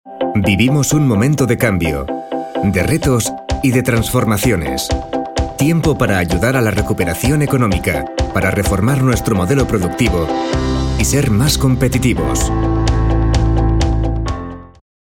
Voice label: spain male filmvertonung sprecher

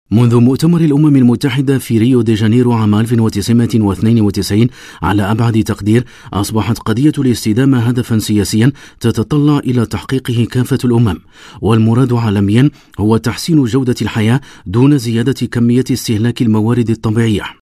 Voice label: arabia male filmvertonung sprecher

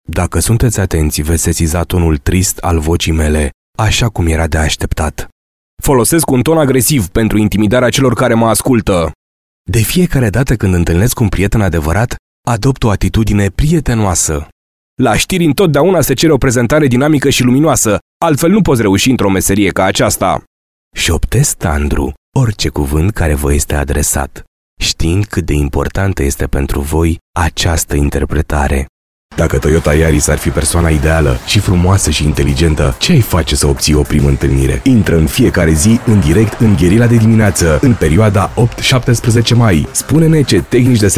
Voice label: rumania male filmvertonung sprecher

